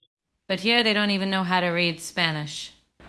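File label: Voice Acting Spanish Female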